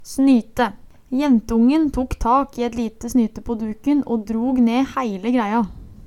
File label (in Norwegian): "snyte", Numedalsmål